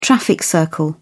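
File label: "traffic circle", British English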